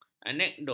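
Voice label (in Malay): "anekdot", Malay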